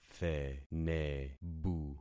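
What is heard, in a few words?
"thenebu", concatenated syllables; Affixing experiment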